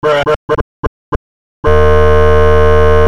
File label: bruh glitched